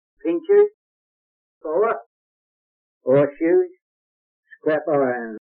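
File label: kent farningham, male; accents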